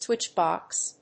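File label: "switch box", English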